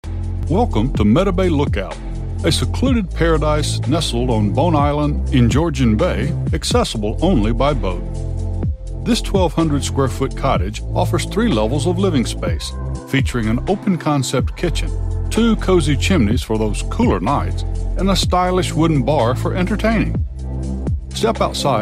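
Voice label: English US, narration; GoLocalise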